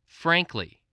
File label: "frankly", English voice, spelling